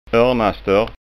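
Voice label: "ornastort", Scanian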